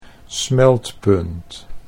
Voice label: "smeltpunt", Dutch